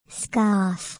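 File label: "scarf", English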